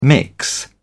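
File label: "mix", British English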